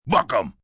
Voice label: Book Em, Police, male: 'Book em', (human voice speaking); Talking; VoiceBox